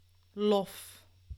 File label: "lof", Dutch; klankstabiliteit